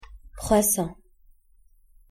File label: "croissant", pronunciation french